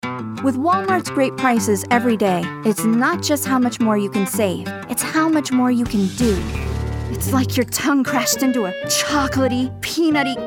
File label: Commercial